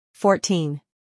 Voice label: "fourteen", English